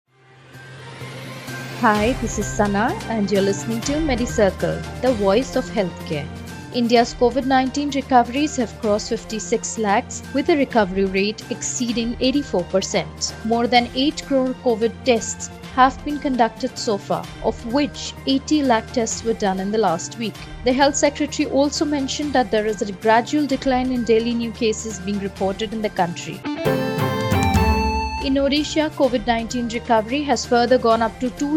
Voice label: english news